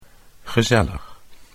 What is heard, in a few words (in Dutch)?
"gezellig", Dutch